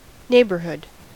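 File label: "neighborhood", American English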